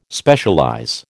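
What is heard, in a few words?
"Specialize", American English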